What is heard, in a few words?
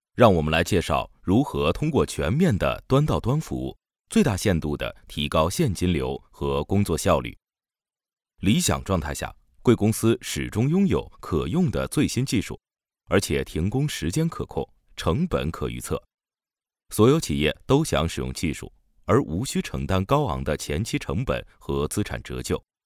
Mandarin Male